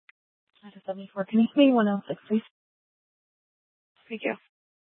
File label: Dispatch, scanner